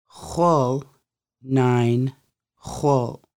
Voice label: Nine, conversational Lushootseed; Conversational Lushootseed: Lessons 1-5